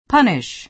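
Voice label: "punish", American English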